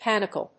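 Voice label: "panicle", English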